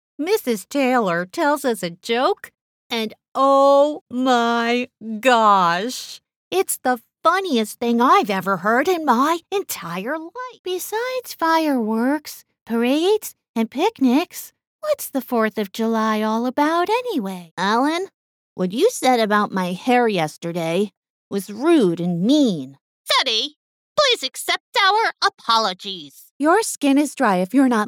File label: Kids and Character Voices